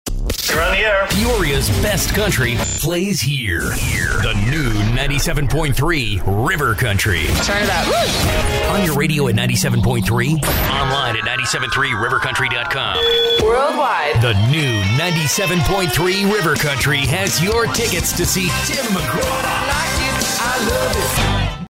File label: RADIO IMAGING